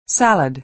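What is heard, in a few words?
"salad", American English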